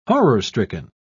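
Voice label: "horror-stricken", British English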